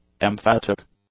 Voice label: "emphatic", English; adjectives

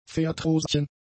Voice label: "verdroschen", German; adjectives

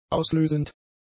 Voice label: "auslösend", German; adjectives

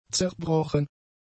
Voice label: "zerbrochen", German; adjectives

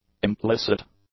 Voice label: "implicit", English; adjectives

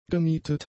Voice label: "gemietet", German; adjectives

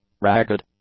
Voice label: "ragged", English; adjectives

